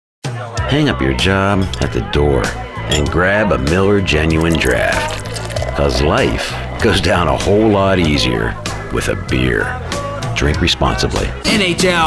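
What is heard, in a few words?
commercial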